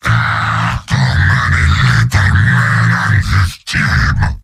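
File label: jeers, robot; heavy; mvm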